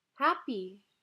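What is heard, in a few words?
"Happy", English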